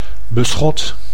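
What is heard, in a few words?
"beschot", Dutch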